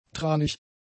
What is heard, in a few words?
"tranig", German; adjectives